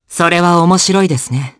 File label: vox dia jp; Fluss; kingsraid